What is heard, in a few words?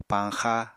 "pañxa", Apatani; Household Items